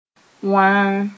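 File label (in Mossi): "wãa", Mooré; dried because of dehydration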